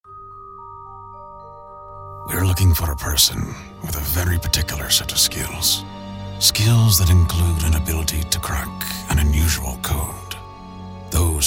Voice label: Voice Talent Celebrity Voices; Liam Neeson